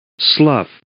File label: "slough", English